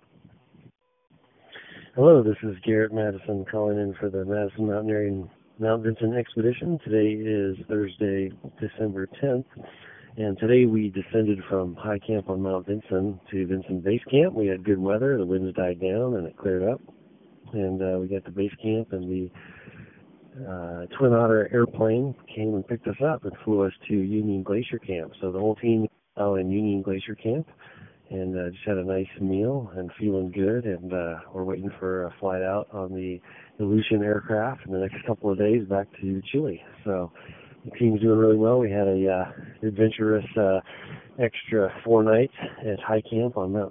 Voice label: voicemail